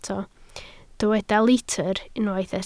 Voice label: "litr", Welsh